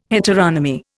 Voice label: "heteronomy", Indian